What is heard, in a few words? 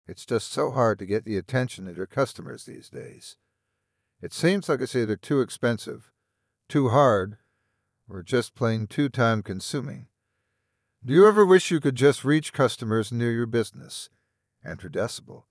ad voice